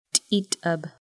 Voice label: "bathe", Lushootseed